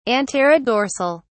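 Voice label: "anterodorsal", British English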